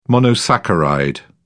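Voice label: "monosaccharide", British English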